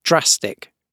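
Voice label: "drastic", British English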